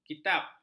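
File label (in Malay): "kitab", Malay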